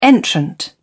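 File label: "entrant", English, British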